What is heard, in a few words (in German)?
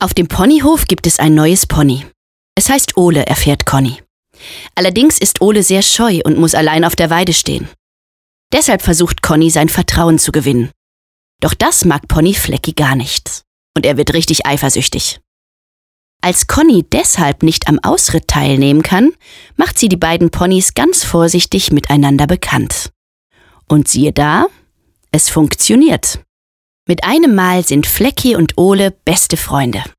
Erzaehler; Conni